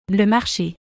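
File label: "le marché", French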